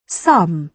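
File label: "sum", British English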